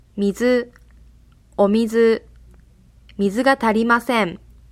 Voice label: water, japanese